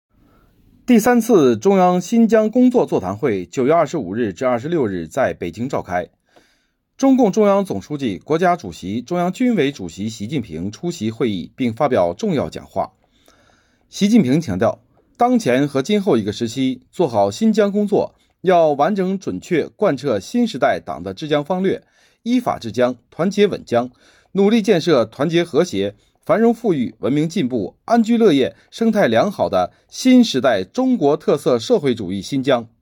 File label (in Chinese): 新闻